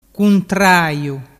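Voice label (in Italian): "cuntraiu", Vernazzese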